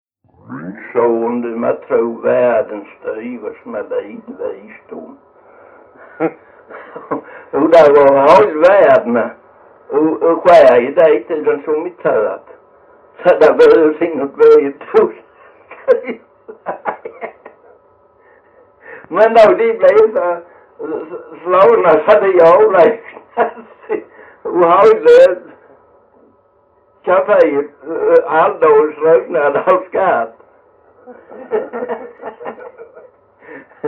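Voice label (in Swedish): Jämshög Blekinge, Svenska sagor dialekter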